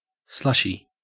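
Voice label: "slushy", Australian English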